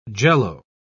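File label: "jello", British English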